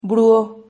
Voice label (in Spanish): "bruo", Silabas